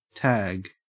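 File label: "tag", Australian English; pronunciation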